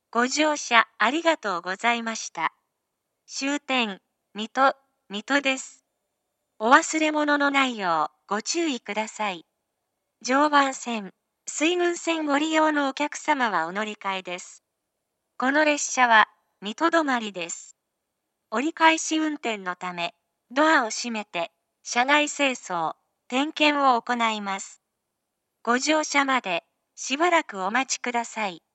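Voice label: platform 3 terminal arrival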